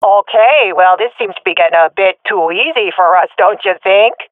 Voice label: round won no casualities, swat fem; vo; Counter Strike Model Pack; CS:GO

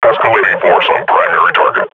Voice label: firing, combine grunt; Half Life Model Pack

